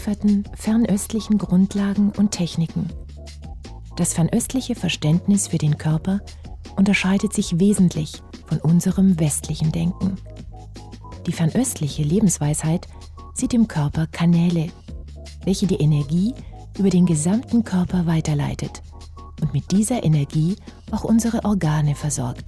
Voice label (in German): Atemmeditation